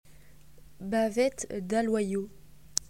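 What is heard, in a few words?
"sirloin", French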